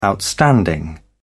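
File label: "outstanding", English, British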